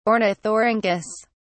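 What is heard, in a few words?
"ornithorhynchus", British English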